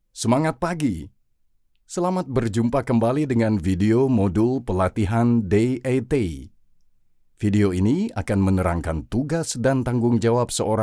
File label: indonesian e-learning